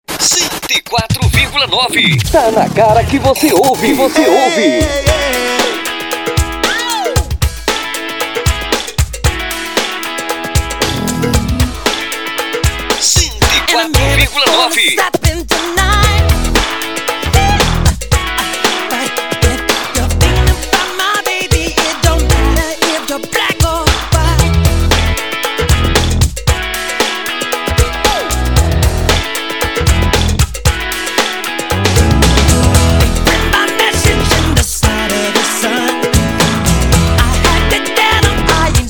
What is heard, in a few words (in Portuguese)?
vinhetas